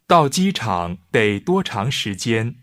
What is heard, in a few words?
Mandarin Conversation